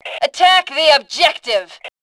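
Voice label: "attack objective", female voice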